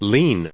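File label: "lean", US English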